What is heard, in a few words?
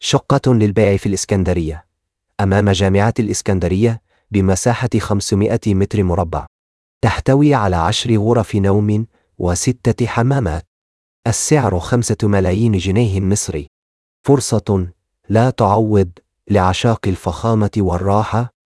narration audio